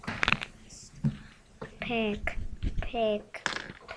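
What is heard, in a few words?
"pick", spoken word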